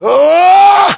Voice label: melee attack, voiceovers english